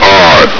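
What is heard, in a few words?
"I!", male; hl2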